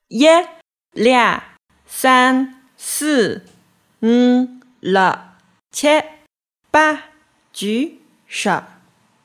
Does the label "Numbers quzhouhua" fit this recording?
Yes